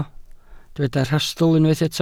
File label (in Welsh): "rhastl" Welsh